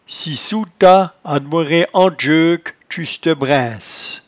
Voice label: "cisouetat", patois jura